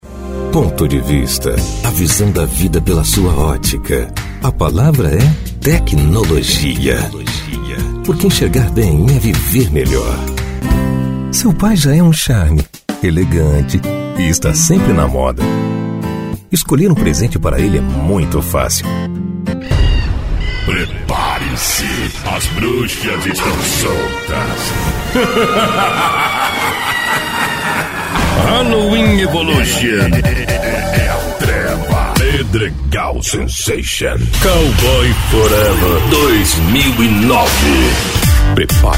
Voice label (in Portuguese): Locutor